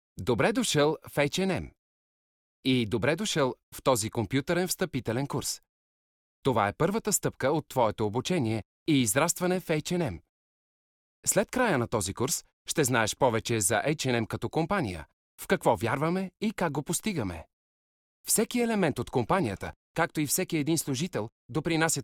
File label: bulgarian m e-learning, voice; voice demos